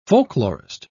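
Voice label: "folklorist", British English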